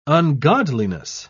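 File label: "ungodliness", English, uk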